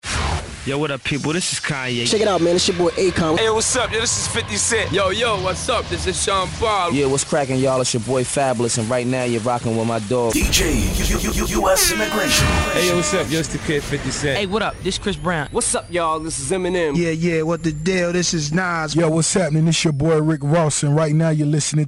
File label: dj drops